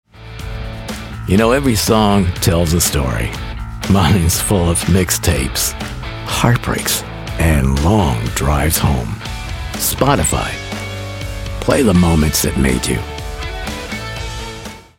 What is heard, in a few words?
Rockstar Gravely voice